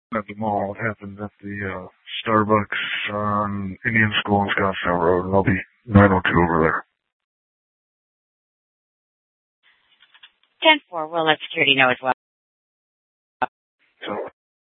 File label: Scottsdale PD Sth Dispatch, scanner